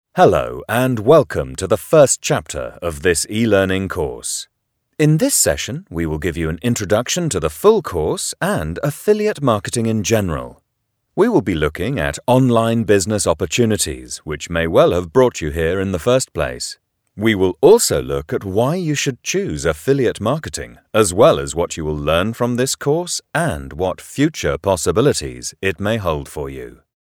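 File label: British elearning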